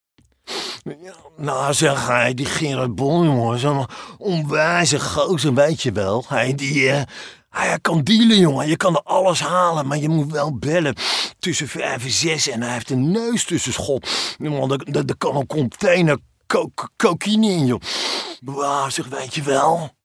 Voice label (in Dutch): Typetjes animatie en nasynchronisatieworkshop